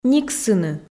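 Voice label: "никсыны", Komi, female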